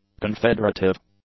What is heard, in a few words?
"confederative", English; adjectives